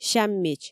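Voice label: "сяммич", Komi, female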